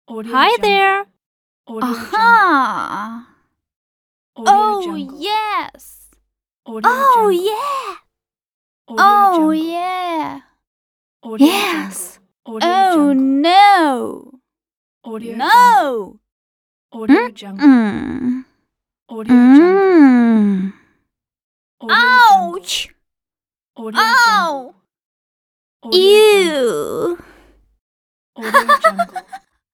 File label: female exclamations